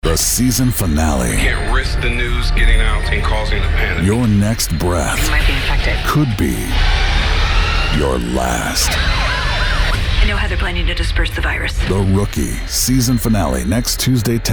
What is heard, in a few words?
men promos